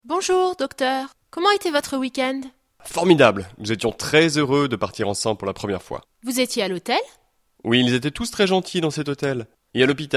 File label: dialogue, French